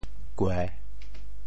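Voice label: "kue3", Teochew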